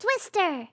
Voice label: twister, RPG Voice; RPG Voice Starter Pack